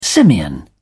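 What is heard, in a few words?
"simian", British English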